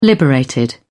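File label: "liberated", British English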